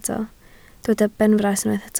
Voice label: "benfras", Welsh